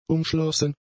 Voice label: "umschlossen", German; adjectives